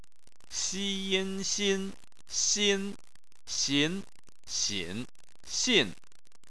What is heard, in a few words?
"xin", chinese